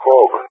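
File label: "Hawg", English, GREECE; Allied Flight; CallSign; DCS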